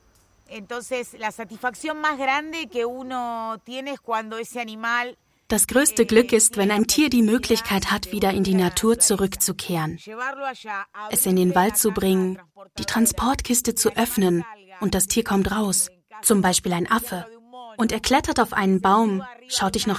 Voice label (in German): Doku Over Voice emotional